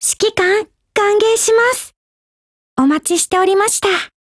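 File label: HELLO, Japanese; GF Alarm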